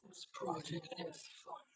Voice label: calm eng Fearful generated; GAN for speech spectrogram